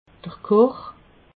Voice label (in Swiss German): "koch", alsace